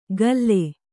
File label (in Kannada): "ಗಲ್ಲೆ", Kannada